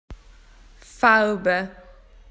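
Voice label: "colours", Australian English; PictureDictionary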